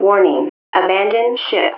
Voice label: intercom; projectmobius